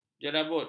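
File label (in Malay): "jerabut", Malay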